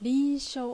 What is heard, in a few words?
"Rinsho", Japanese; りんしょ; 臨書; Copy; Glossary of Calligraphy Terms